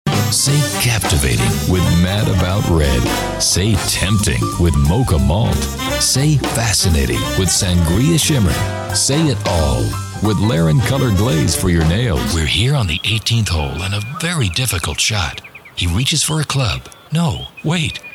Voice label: COMMERCIAL